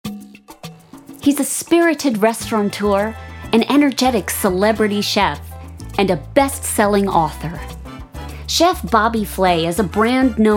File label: Documentary; Documentary Voice Over Reel